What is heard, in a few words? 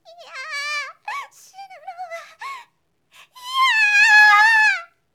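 "sinunohaiya", Japanese, girl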